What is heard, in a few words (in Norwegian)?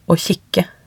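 "å kikke", Norwegian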